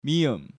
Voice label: "Mieum", Korean